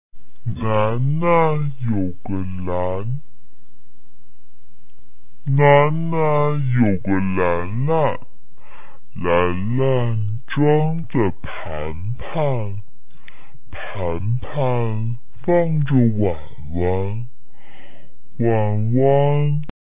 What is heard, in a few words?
chinese tongue twister